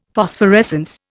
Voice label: "Phosphorescence", Indian; Dictionary

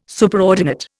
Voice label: "Superordinate", Indian

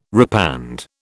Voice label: "repand", British

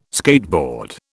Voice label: "skateboard", British

